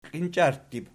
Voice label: "klincharttib", Archi